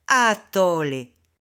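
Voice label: "atole", Spanish, female; AppLenguaje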